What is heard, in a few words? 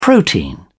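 "protein", British English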